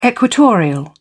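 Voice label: "equatorial", British English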